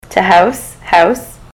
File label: to house house, ontario; mouth set